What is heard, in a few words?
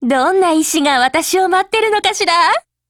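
OPERATION BEGIN, Japanese; MP443Mod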